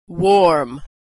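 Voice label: "warm", American English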